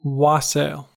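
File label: "wassail", American English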